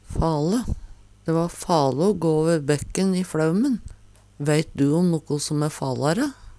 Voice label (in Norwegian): "fale", Numedalsmål